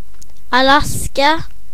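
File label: alaska, Spanish; gcompris-data; geography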